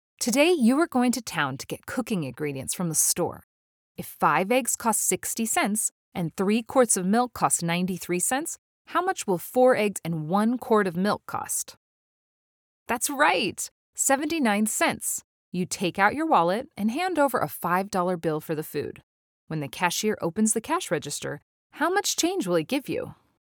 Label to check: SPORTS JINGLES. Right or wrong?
Wrong